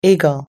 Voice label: "eagle", US English

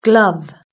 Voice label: "glove", british english

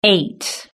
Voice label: "eight", british english

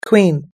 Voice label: "queen", US English

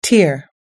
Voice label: "tear", US English

